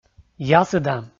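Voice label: "ясыда", Komi, male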